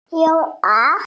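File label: "young child", Mandarin, hearing-impaired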